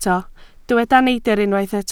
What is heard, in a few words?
"neidr", Welsh